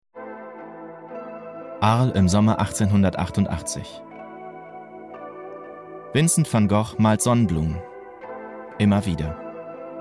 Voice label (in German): Sprach Demo Doku; Sonnenblumen